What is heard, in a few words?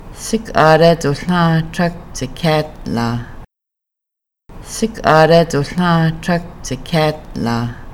We havent finished paying for our old truck, Kaska